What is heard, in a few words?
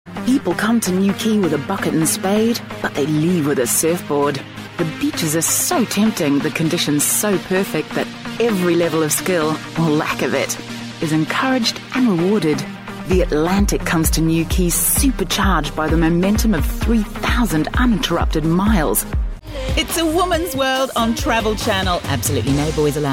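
English New Zealand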